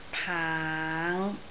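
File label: "paang5", Cantonese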